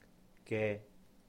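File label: "ge", Cantonese; possessive marker; 嘅; ge3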